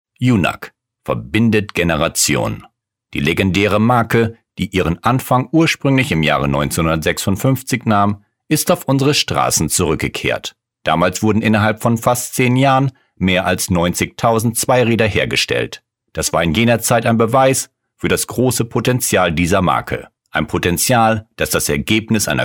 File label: narration